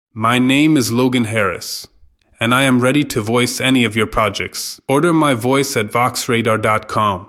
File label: USA